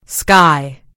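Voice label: "sky", British English; gcompris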